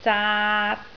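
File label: "zaat3", Cantonese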